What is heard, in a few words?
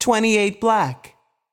voice over; french roulette 3